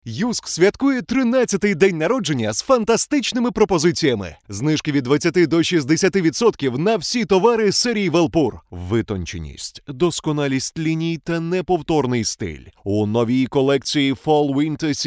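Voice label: Ukrainian, male, voice over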